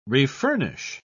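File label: "refurnish", British English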